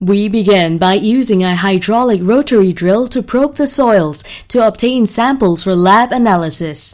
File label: instructional